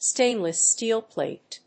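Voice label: "stainless steel plate", English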